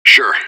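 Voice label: radio bot response positive; fbihrt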